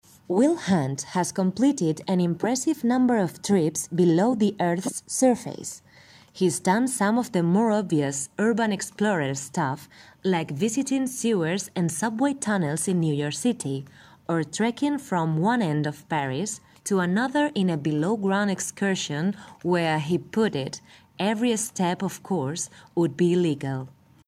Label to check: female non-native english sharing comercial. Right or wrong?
Right